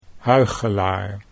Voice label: "huichelaar", Dutch

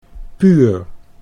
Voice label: "puur", Dutch

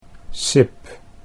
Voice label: "sip", Dutch

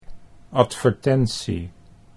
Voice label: "advertentie", Dutch

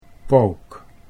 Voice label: "pook", Dutch

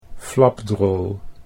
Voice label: "flapdrol", Dutch

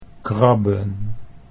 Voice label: "krabben", Dutch

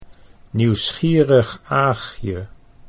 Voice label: "nieuwsgierig Aagje", Dutch

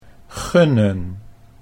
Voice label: "gunnen", Dutch

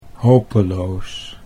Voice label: "hopeloos", Dutch

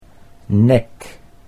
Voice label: "nek", Dutch

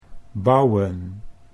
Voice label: "bouwen", Dutch

